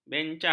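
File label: "bencah", Malay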